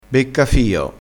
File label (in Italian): "beccafio", dialettale